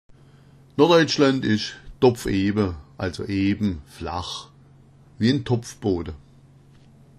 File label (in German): dopfeba, schwaebisch; Norddeutschland ist dopf’eba, also eben, flach – wie ein Topfboden.